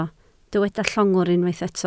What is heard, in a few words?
"llongwr", Welsh